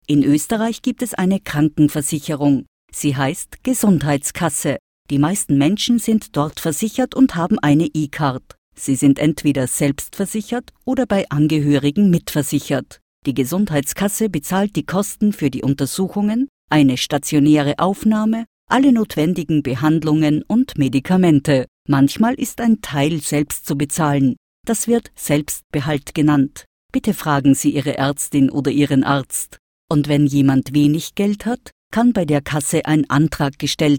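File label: Austrian German, female, e-learning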